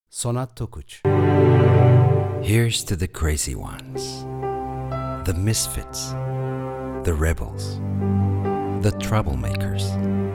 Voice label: ingilizce, english male voices turkish accent